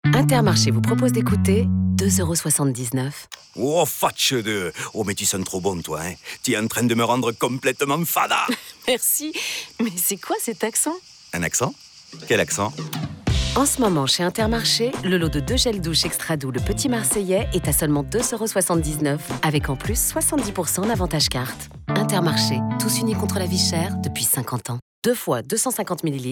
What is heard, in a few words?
RADIO; Intermarché l'accent